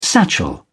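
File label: "satchel", British English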